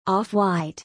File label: "Off White", English